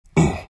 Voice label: treant pain